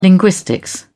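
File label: "linguistics", British English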